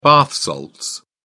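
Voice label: "bath salts", British English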